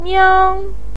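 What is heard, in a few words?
"niao", Mandarin Chinese first tone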